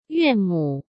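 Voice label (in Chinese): "岳母", Mandarin Chinese; hsk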